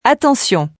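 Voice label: Attention, French, voice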